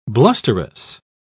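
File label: "blusterous", British English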